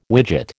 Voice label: "widget", American